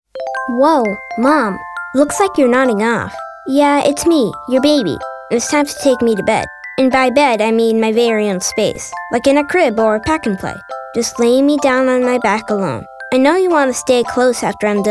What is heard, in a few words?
SIDS Safe Sleep Radio